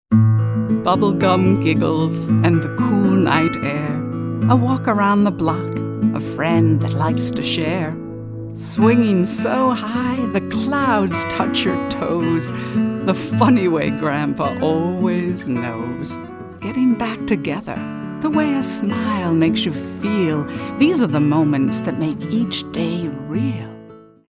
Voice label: storytellers